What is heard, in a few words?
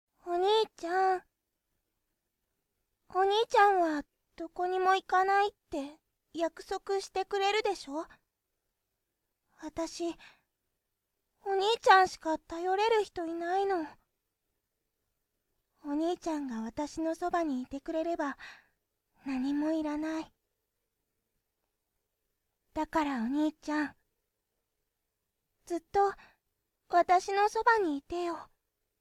Japanese ASMR; お兄ちゃん好きのヤンデレ彼女; スピンオフ作品集